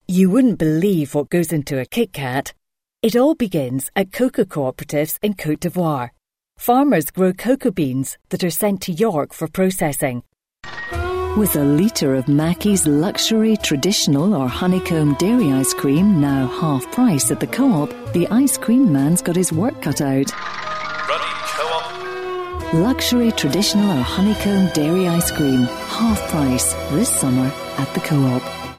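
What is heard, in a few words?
British Scottish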